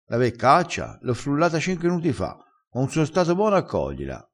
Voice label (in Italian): "frulla", crespinese